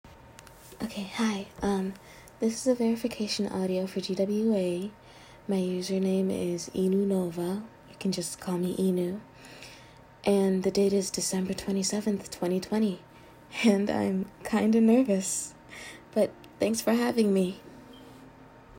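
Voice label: Female verification; GWA Verification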